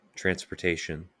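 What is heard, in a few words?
"transportation", English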